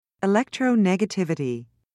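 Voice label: "electronegativity", British English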